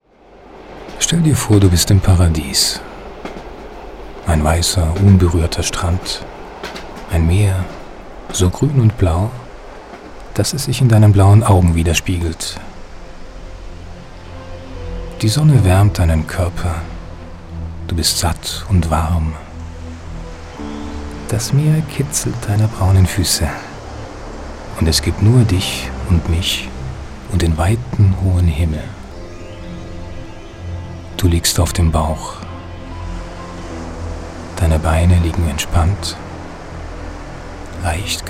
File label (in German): Erotik Hoerspiel; Just For Women